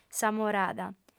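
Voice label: "s'amorada", Sardinian female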